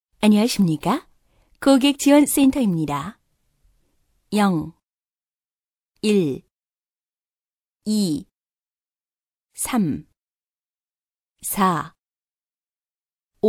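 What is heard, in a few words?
Korean, female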